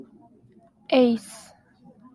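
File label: "ace", Japanese, female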